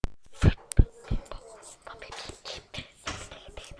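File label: "rub", spoken word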